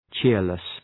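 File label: "cheerless", English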